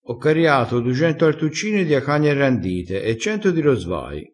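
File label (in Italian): "caria", dialettale crespinese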